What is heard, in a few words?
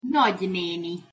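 "nagyneni", Hungarian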